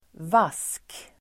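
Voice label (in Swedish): "vask", Swedish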